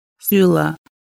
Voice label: toward center of house, Lushootseed